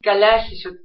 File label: greenlandic